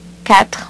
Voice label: "quatre", French; HyperSound™2